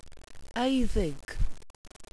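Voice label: "eiddig", welsh pronunciations; Wales/welsh names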